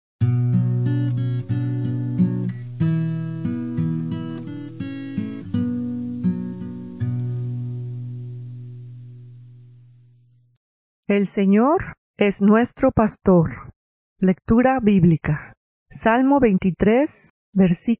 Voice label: devociones devotions spanish latin america